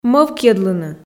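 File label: "мӧвкйӧдлыны", Komi, female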